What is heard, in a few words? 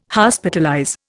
"Hospitalize", Indian